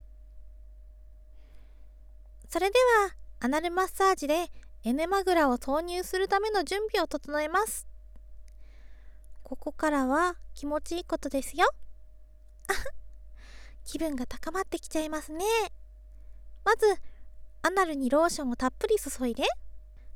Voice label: アナルマッサージ, ASMR

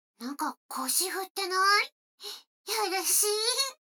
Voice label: 女の子台詞, ASMR

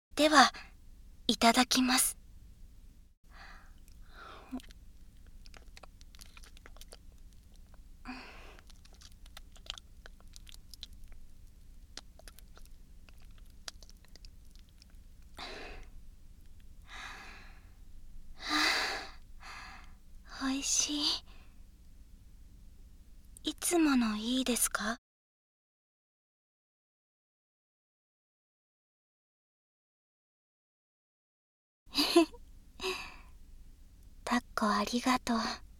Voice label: 左_声のみ_ノイズ除去なし

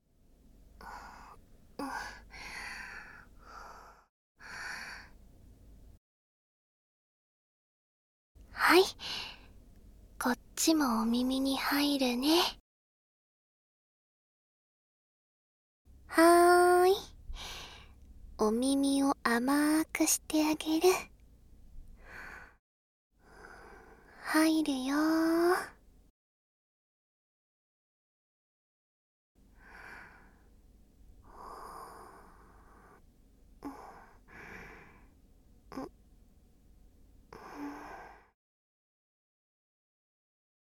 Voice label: 左 声のみ ノイズ除去なし, ASMR

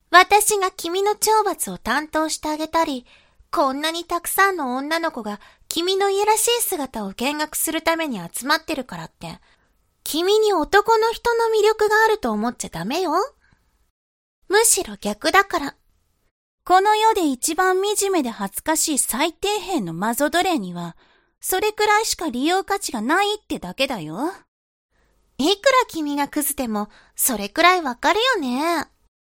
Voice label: 懲罰中音声, ASMR

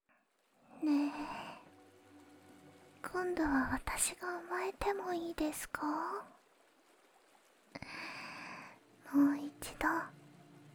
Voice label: 添い寝, アンビエントBGM

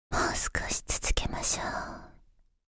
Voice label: 「もう少し続けましょう～」(ささやき); ワンモアパーツ（エクササイズ間）

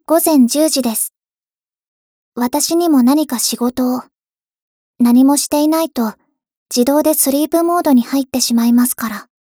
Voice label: 時報ボイス 電脳少女; ボイスコレクション 電脳少女編

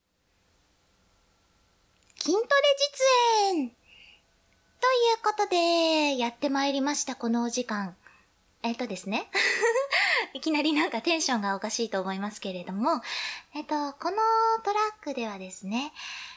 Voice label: 実演カウントダウン筋トレ! モノラル

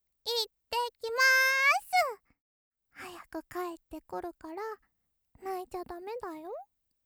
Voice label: ロリ いってきます

